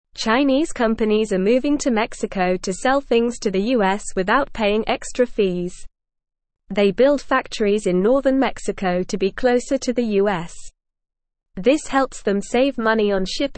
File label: English NORMAL Reading; Chinese Companies Move to Mexico to Avoid US Fees